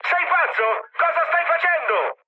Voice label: player killed ally mood high, speech it; War Thunder